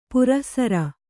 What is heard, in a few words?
"ಪುರಃಸರ", Kannada